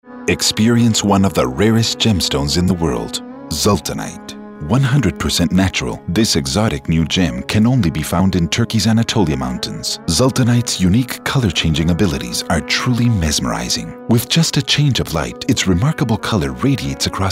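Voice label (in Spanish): Comercial, inglés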